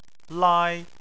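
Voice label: "lai", Chinese, first tone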